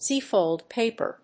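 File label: "z-fold paper", English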